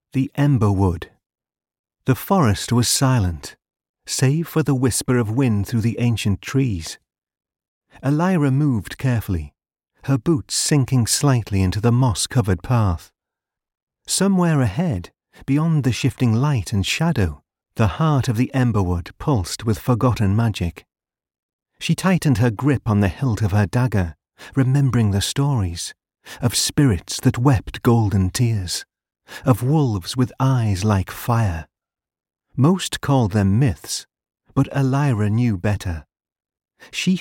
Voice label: teesside voiceover audiobook